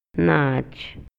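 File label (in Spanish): Maya, Yucatán, male; RELACIONES ESPACIALES; INALI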